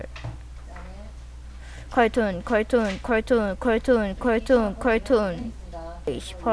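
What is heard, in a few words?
"cartoon", spoken word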